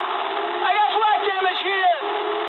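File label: English damaged aa mood low; speech; War Thunder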